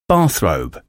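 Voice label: "bathrobe", English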